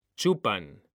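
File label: "chupan", Spanish, male; mekanta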